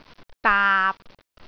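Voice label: "daap6", Cantonese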